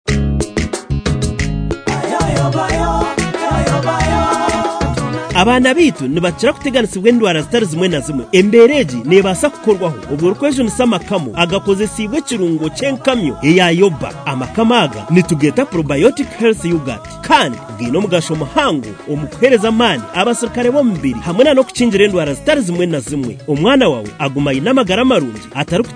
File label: radio advert